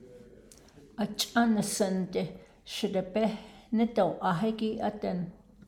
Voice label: navajo; conjunction